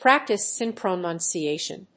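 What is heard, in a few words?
"practice in pronunciation", English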